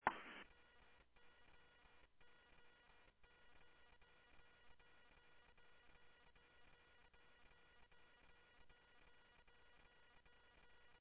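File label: Paradise Valley PD Dispatch, scanner audio; H-Scottsdale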